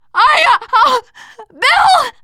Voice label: grief, teen girl